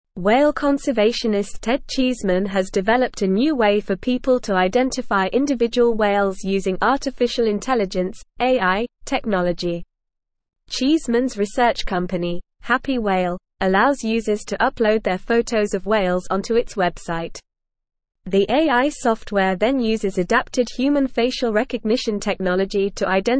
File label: English Newsroom Advanced NORMAL Reading; AI Software Revolutionizes Wildlife Identification and Cons